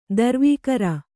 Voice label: "ದುರ್ವಿಕಾರ", Kannada

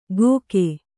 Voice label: "ಗೋಕೆ", Kannada

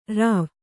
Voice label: "ರುವಿ", Kannada

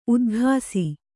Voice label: "ಉದಾಗಸ", Kannada

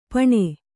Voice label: "ಪಣೆ", Kannada

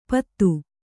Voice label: "ಪತ್ತು", Kannada

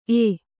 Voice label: "ಏ", Kannada

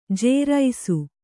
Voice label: "ಜೇರೈಸು", Kannada

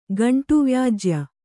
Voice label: "ಗಂಟುವ್ಯಾಜ್ಯ", Kannada

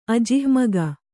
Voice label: "ಅಜಿಹ್ಮಗ", Kannada

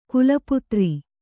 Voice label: "ಖಲಪಾತ್ರ", Kannada

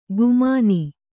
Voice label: "ಗುಮಾನಿ", Kannada

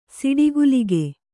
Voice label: "ಸಿಡಿಗುಲಿಗೆ", Kannada

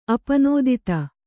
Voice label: "ಅಪನೋದಿತ", Kannada

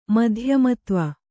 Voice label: "ಮಧ್ಯಮತ್ವ", Kannada

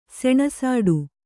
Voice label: "ಸೆಣಸಾಟ", Kannada

